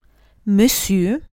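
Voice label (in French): "monsieur", French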